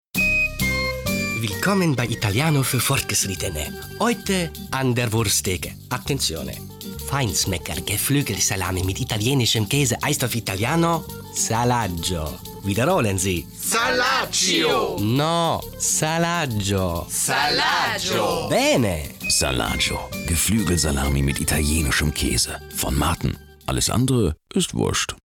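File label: Italian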